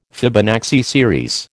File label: "Fibonacci series", American